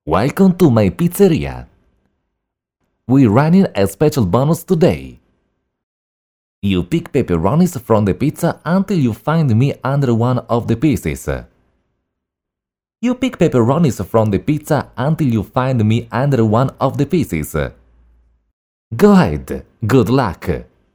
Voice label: Italian Accent; foreign languages